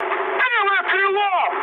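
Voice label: Russian left wing mood high, speech; War Thunder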